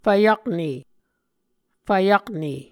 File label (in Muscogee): "fvyakne", Muscogee